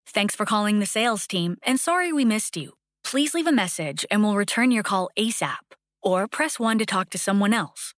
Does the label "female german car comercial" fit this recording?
No